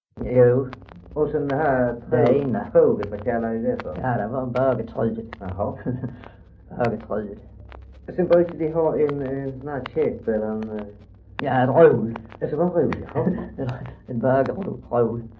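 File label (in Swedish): Röke Skåne, Svenska sagor dialekter; Folkminnen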